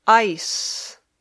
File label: "ice", English